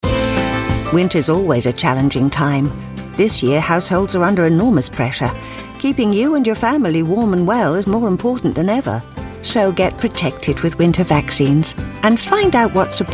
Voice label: commercial my natural voice